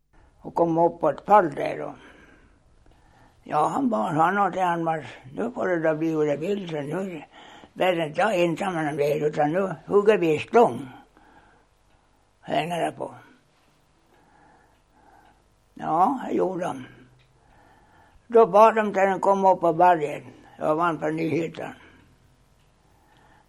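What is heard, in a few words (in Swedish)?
Torsåker Gästrikland, Svenska sagor, dialekter; Folkminnen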